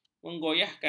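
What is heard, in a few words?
"menggoyahkan", Malay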